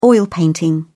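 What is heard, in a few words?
"oil painting", British English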